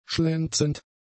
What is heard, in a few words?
"schlenzend", German; adjectives